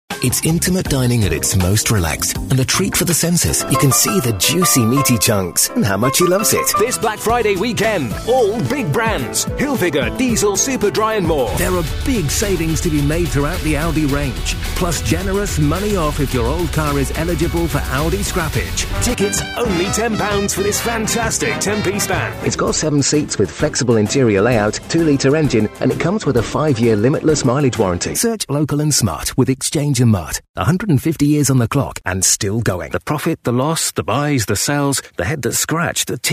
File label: tv and radio commercials